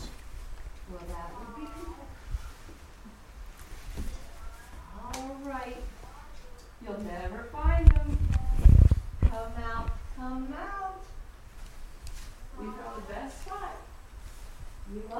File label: boy counting and where are you; 3rd floor